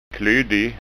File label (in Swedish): "klyddig", skånska ord